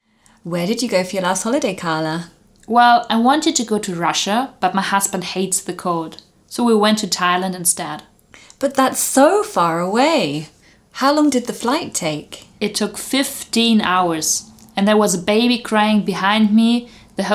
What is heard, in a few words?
Conversation Past simple